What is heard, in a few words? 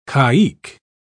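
"caique", English, British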